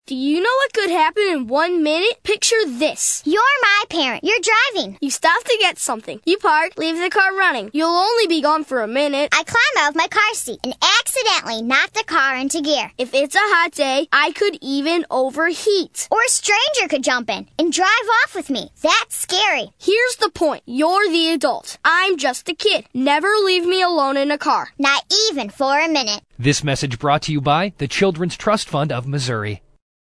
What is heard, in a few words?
radio kids